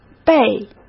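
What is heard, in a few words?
"被", Mandarin Chinese; hsk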